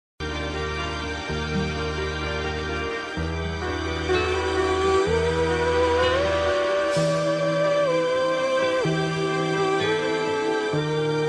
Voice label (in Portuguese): Agradecendo Pela Ajuda Feminino; Amizade